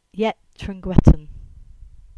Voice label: Cornish; Trengwainton Gate